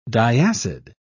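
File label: "diacid", British English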